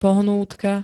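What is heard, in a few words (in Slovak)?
"pohnútka", Slovak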